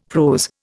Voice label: "prose", Indian; Dictionary